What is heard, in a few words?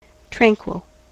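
"tranquil", US English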